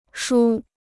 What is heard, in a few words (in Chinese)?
"梳", Chinese